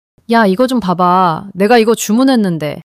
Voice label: anger

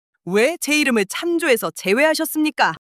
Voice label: anger; monologue

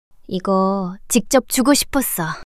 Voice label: shy; monologue

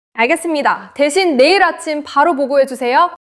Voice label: neutral, monologue

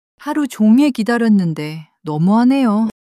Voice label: sadness, monologue

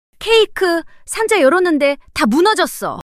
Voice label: surprise, monologue

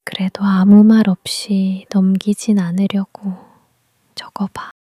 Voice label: shy

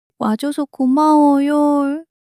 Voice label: crying, monologue

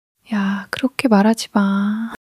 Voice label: sadness, monologue

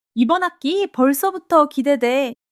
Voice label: joy; monologue

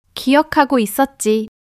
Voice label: joy; monologue

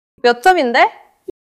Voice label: neutral, monologue

